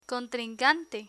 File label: "contrincante", Spanish